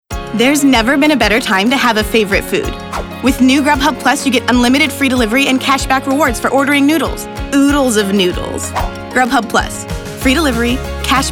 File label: Commercial